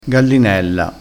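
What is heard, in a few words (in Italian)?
"gallinella", vocabolario dialettale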